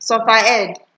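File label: "suffer head", Nigerian Pidgin, female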